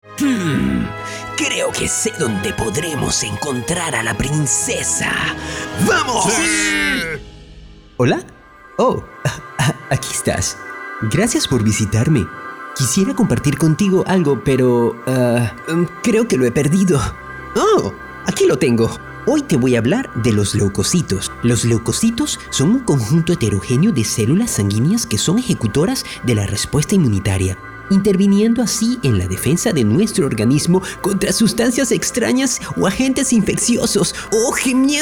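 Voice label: doblaje, Venezuelan Spanish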